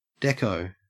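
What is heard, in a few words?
"dekko", Australian English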